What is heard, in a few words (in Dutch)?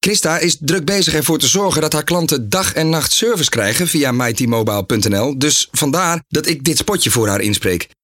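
enthousiast, stem voice-over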